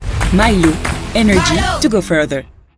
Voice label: English promos

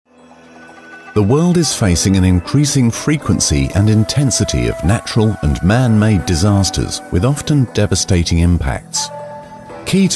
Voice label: english british documentaries

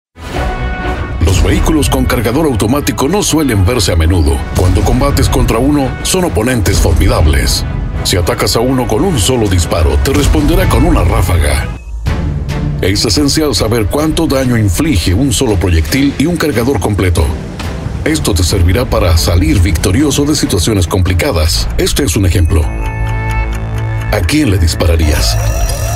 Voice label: spanish latin american video games

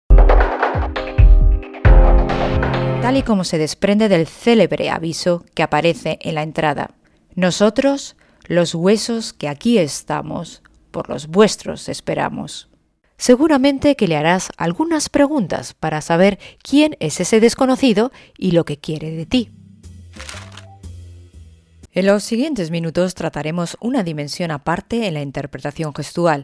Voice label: spanish commercial

